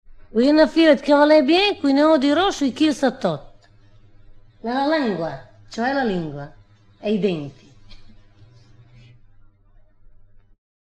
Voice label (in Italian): indovinello, romagna